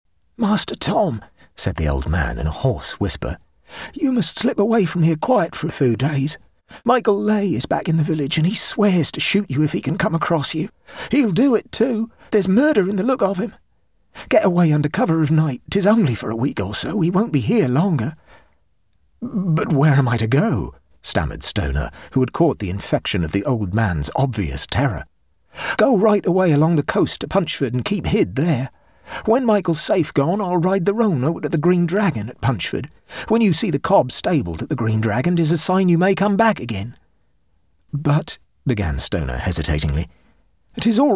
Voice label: english british audiobooks